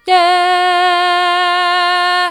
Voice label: "yeah", vocal